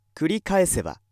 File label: male